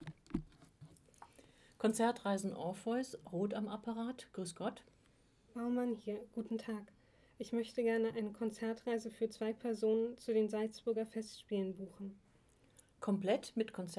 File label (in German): Dialog